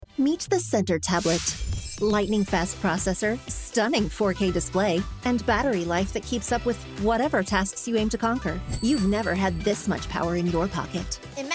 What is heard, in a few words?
Commercial